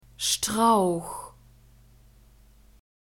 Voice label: "strauch", German; phonetic script grouped consonants

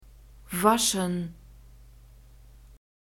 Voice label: "waschen", German; verbs present tense irregular

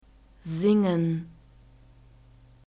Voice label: "singen", German; phonetic script grouped consonants

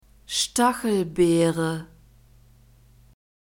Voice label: "stachelbeere", German; fruits

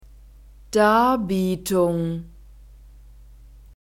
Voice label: "Darbietung", German

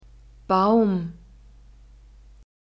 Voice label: "Baum", German; nouns gender feminine

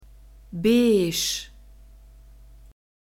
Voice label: "beige", German

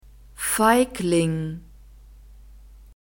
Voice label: "Feigling", German; masculine

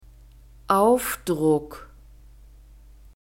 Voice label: "Aufdruck", German

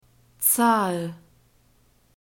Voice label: "zahl", German; nouns gender feminine

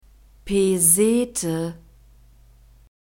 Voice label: "pesete", German; masculine

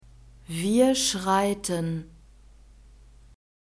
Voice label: "wir schreiten", German; verbs present tense irregular

